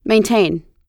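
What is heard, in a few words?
"Maintain", American English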